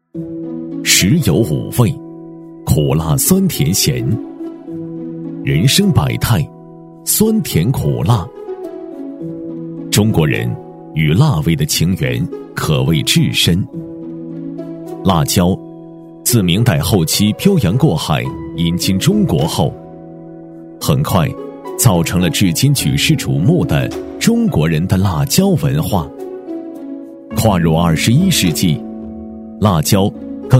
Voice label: 男 舌尖感

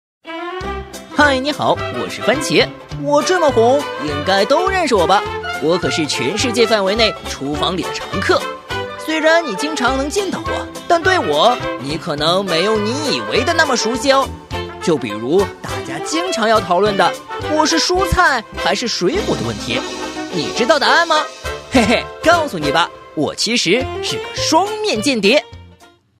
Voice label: 男 MG风格 课件科普 搞怪; 男WX-年轻多变; 幽默搞怪

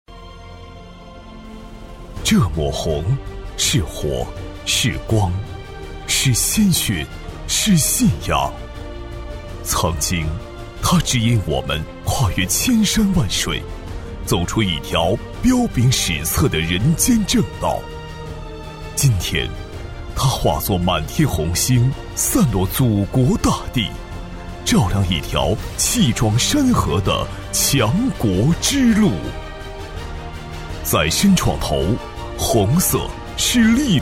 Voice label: 男 历史感, 厚重有力 纪实记录

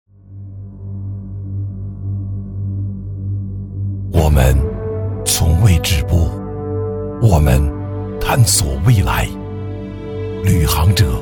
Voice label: 男 走心旁白 低沉质感; 男B28-大气舒缓; 质感磁性; 《水维C》

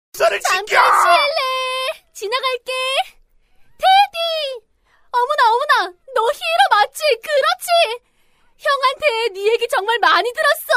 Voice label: 韩语女声

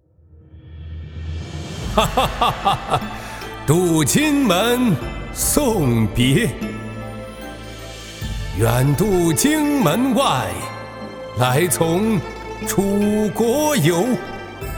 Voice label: 男 古诗朗诵 舞台; 素人自然

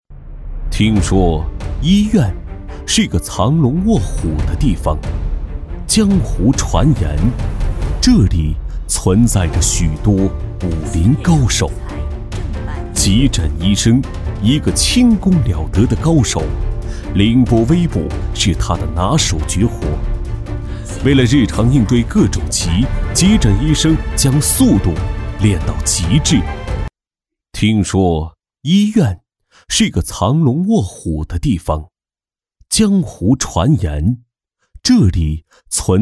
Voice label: 男 医师节 江湖故事 武侠风, 年轻气质 大气浑厚; 男D2-医师节 江湖故事-武侠风

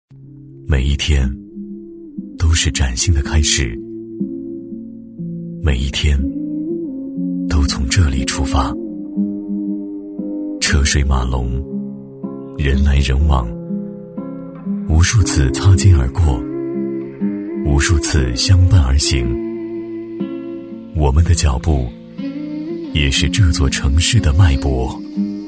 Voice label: 男 走心旁白 温暖自述; 男B1-大气沉稳

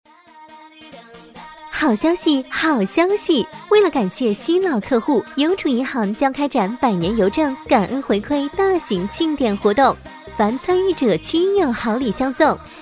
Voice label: 女 促销广告 好消息 热情甜美; 甜美亲切

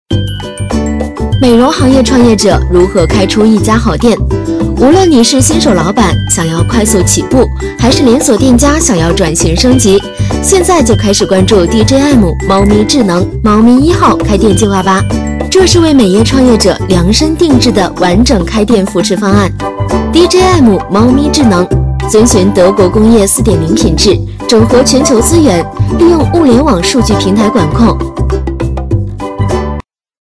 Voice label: 女 信息流 美容行业创业者如何开出一家好店 轻松活泼

